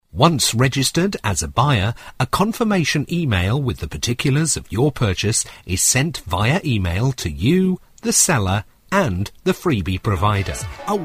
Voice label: locutor ingles english voiceover